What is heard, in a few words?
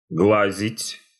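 "глазить", Russian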